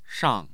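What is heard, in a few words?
"shang4", Mandarin Chinese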